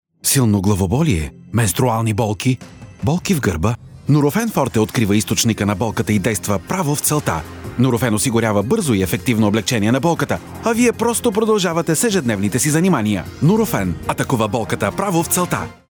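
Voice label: Bulgarian, male, voice over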